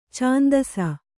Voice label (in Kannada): "ಛಂದಸ್ಸು", Kannada